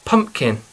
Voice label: "pumpkin", English